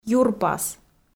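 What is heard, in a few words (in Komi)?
"юрпас" Komi, female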